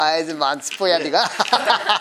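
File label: "matupoe", Minamiyamagata dialect Japanese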